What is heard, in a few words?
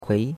"kui2", chinois; Cours de Chinois